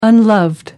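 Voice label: "unloved", English, British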